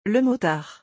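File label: "le motard", French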